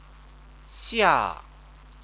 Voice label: "xia", Chinese

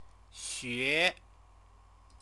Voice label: "xue", Chinese

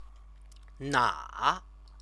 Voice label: "na", Chinese

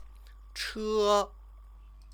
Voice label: "che", Chinese; 车; vehicle, car, bike; noun

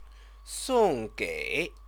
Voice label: "songgei", Chinese

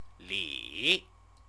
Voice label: "li", Chinese; CTCFL; University of Oxford

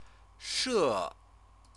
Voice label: "she", Chinese

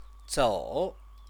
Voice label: "zou", Chinese

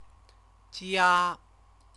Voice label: "jia", Chinese

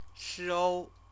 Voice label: "shou", Chinese

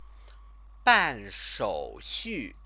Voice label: "banshouxu", Chinese; 办 手 续; deal with formalities; verb-object; Chinese lessons

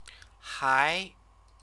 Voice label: "hai", Chinese

